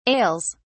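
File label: "ails", British English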